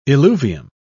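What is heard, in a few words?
"eluvium", British English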